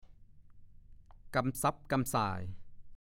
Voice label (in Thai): "กำสั๊บกำส่าย", ภาษาโคราช; กำสั๊บกำส่าย / 1. กระสับกระส่าย